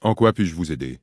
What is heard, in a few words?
human, male; PhoenixCraft-1.16.5; PhoenixNPCPack; minecraft